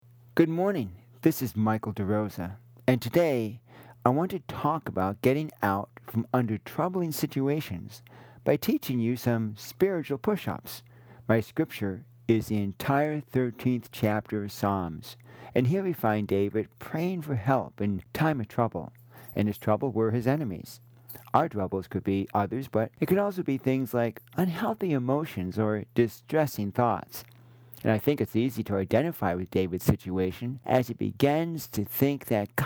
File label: Radio Sermonette; Psalm 13; Difficulty Situations and Spiritual Pushups; dealing with troubles